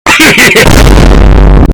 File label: he he he ha, earrape, bass boosted; Clash Royale king; SUPER earrape